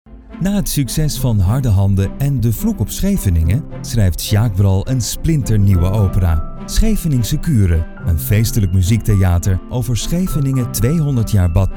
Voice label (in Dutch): Warm